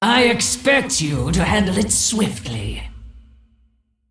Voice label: Female Dragon